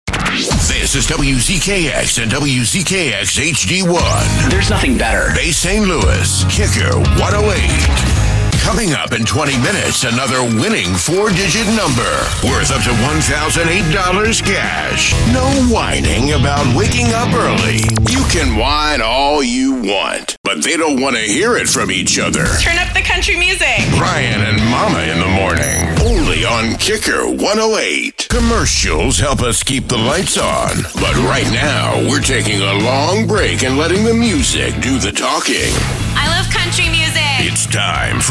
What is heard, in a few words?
Imaging Montage, radio voice imaging; New Orleans